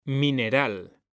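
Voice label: "mineral", Spanish, male; mekanta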